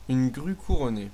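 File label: crowned crane, French; animals; birds; tuxpaint-stamps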